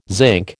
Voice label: "zinc", American